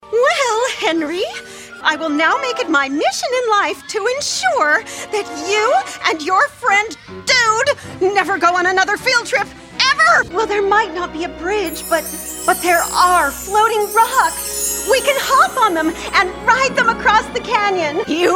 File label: Animation Coach